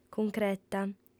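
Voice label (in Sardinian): "cuncreta", female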